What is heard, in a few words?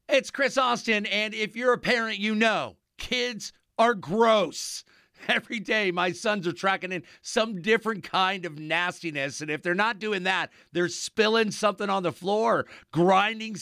LIVE READ; ZEROREZ; KKFM